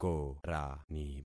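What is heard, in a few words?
"korani", concatenated syllables; Affixing experiment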